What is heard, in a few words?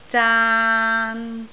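"zaan3" Cantonese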